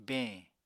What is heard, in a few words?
"beñ", Apatani